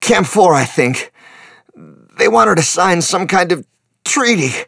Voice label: wounded soldier, voice; Star Wars Obi Wan; XBOX

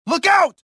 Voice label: anger, prisoner

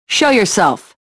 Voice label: suspicious; XBOX

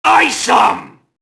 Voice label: mobster alert group; enemy; Max Payne

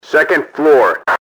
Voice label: floor 2, enemy english team orders; XBOX

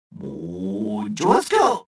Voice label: detected, rodian

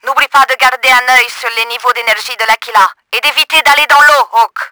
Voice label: REMINDER, french; Battle Engine Aquila; XBOX

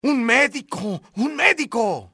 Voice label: medic medic, spanish soldier; enemy; XBOX

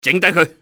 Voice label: plug him, enemy cantonese male; XBOX

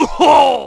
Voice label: fall, negative; Splashdown; XBOX

